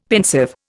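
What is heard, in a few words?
"pensive", Indian English; Dictionary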